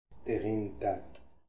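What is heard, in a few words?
"Degindad", pronunciations; Fourth Way; Beelzebub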